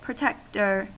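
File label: "protector", US English; pronunciations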